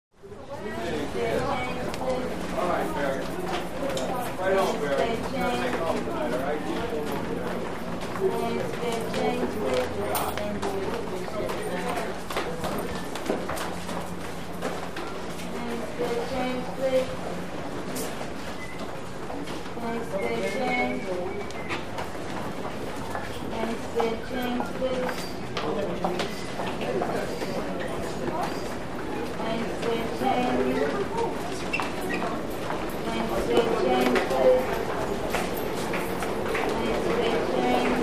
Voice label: Female Beggar Stairw, lobbys; Female Beggar In Stairway Drones, Any Spare Change?... With Very Busy Pedestrian Walla And Footsteps By Close. Traffic Or Subway Roar In Background.